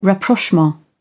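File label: "rapprochement", British English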